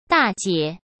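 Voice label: "大姐", Mandarin Chinese; hsk; chinese-flashcards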